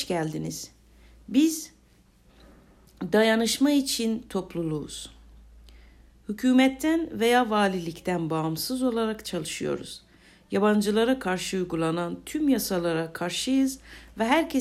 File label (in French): turc bienvenue permanences; Accueil turc